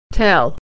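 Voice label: "tell", American English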